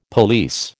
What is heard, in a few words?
"police", American